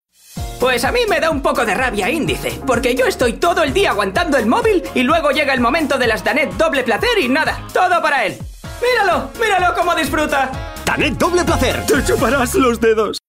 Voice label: male spanish cartoon comercial; ideasonora

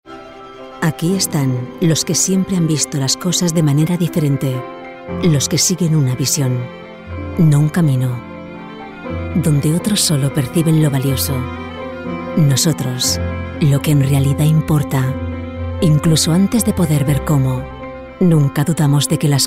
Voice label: female spanish corporate comercial; ideasonora

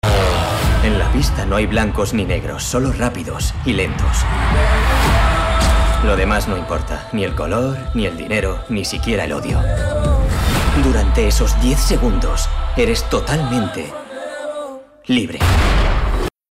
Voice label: male spanish movie comercial; ideasonora

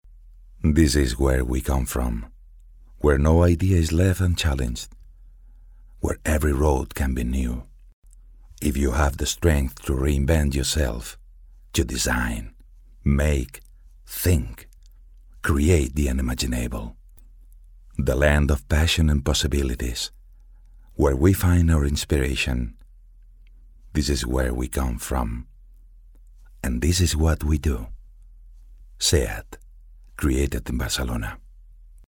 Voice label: male english car comercial; ideasonora

